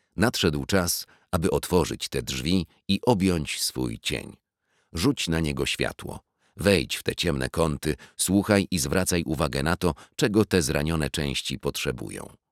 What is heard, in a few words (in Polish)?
trzeci fragment audiobooka